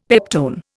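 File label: "peptone", Indian English; Dictionary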